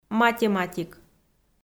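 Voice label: "математик", Komi, female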